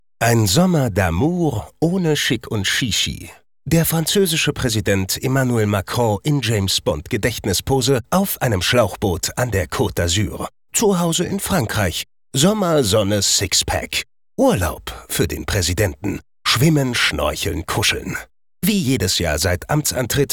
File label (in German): Kommentar, maenner; Bunte TV